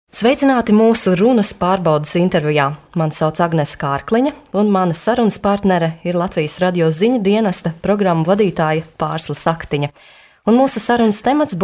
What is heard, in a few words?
latvian f narration, Latvian voice; voice demos